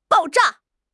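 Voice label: "爆炸", Chinese; HSK6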